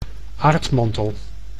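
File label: "aardmantel", Dutch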